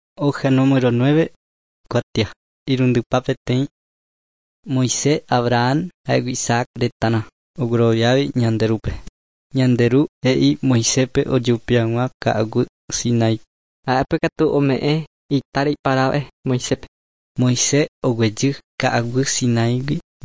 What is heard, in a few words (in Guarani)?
guarani mbya; good news and words of life; bible